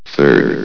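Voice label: "third", male synthesized announcer voice; hlvox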